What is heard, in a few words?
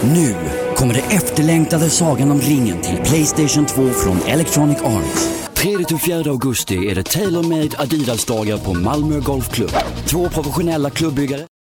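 Swedish commercial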